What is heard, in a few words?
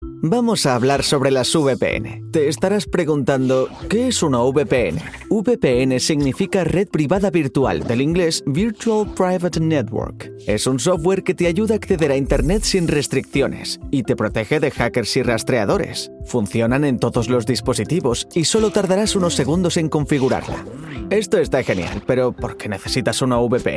spanish e-learning